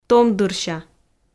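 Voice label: "томдырся", Komi, female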